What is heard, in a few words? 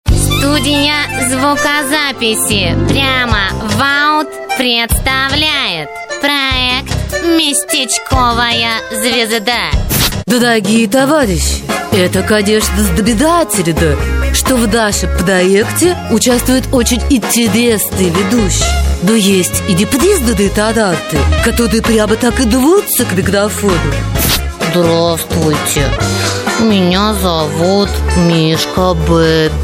rus